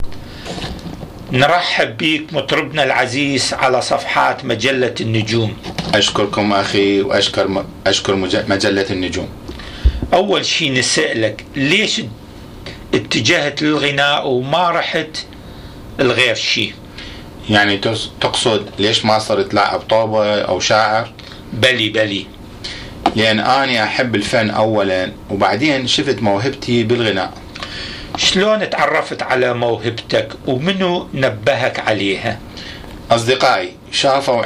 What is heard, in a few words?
Dialogue between singer n journalist, Iraqi Dialect